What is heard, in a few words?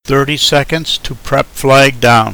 30 sec prep down, male English